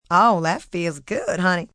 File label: Good Honey, Sexy, fun, female; Talking Sound Effects